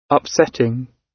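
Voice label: "upsetting", British English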